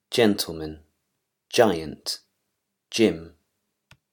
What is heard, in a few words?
english pronunciation rules